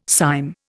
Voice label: "cyme", Indian